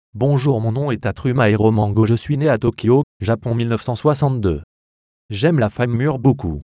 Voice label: realspeak french